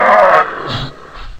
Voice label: pain; tf; slender; bioclone